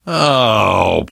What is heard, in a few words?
reaction negative, survivor voice